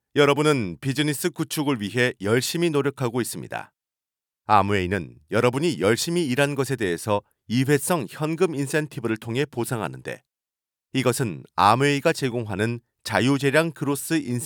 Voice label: male korean voice over narrative